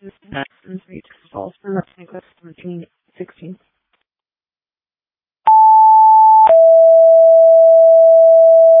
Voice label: Fire, dispatch; Janesville